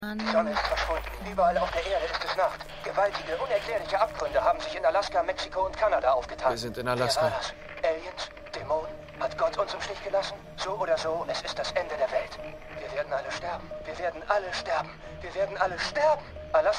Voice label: Funkstimme

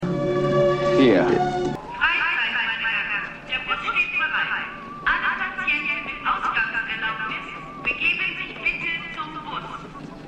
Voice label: Aufseher Washington Hier Durchsage; Einer Flog Ueber Das Kuckucksnest

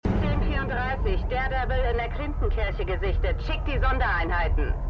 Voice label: Funkstimme

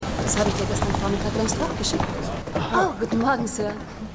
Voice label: Frau; For All Mankind

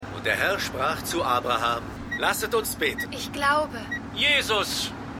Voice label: Radiostimmen, Stimmproben; Seinfeld

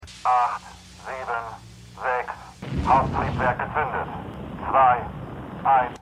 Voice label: Countdownsprecher; Stimmproben; For All Mankind

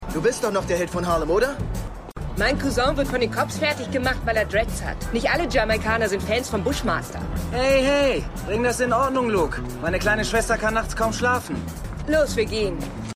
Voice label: Junger Mann Frau Grosser Bruder, Stimmproben; Luke Cage

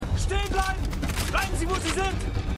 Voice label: Soldat, Stimmproben; Revolution

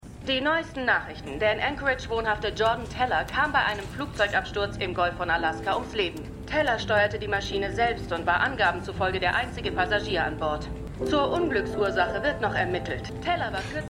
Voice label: Nachrichtensprecherin; AlaskaDaily

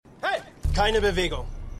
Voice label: Rebellensoldat, Stimmproben; Revolution

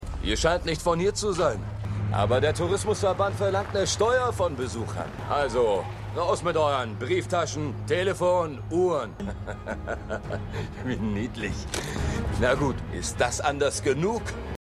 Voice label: Boesewicht; Stimmproben; Arrow

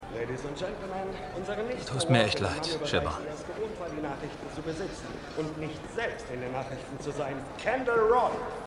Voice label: Gala Moderator; Succession

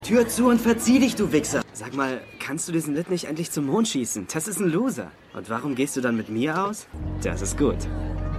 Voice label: Jugendlicher; Drake Johnson; Suits

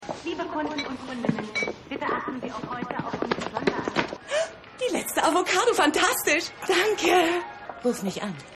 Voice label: Durchsage Kundin; Rescue Me

